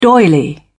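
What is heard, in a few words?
"doily", British English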